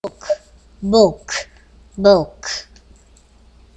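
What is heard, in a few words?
"book", spoken word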